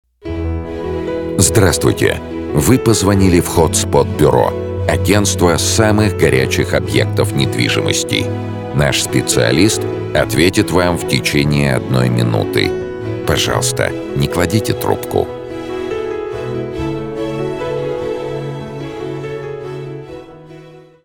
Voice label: IVR